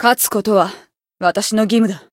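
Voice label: Voice win, Japanese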